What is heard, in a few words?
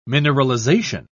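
"mineralization", British English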